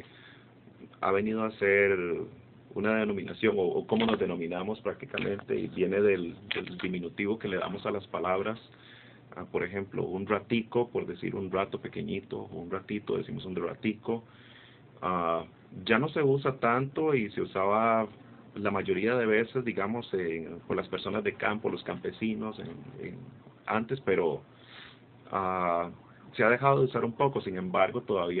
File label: Costa Rica Male Tico